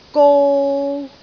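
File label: "gou3", Cantonese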